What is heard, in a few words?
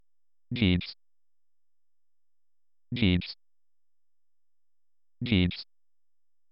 my name italian